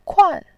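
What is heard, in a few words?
"kuan4", Mandarin Chinese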